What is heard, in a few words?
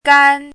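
"gan1", Mandarin Chinese syllable, pinyin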